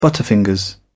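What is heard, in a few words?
"butterfingers", English, British English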